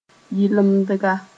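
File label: "yɩlemdga", Mossi; multiplier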